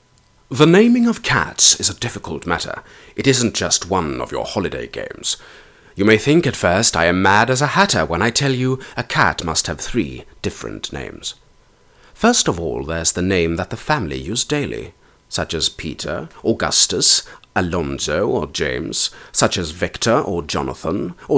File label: Voice br eng